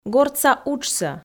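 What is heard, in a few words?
"гортса уджсӧ", Komi, female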